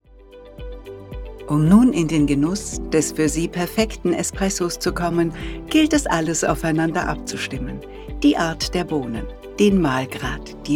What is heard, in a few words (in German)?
elearning kaffeevollautomat